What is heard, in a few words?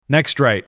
Male Next Right